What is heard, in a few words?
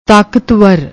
"taakatvar", Punjabi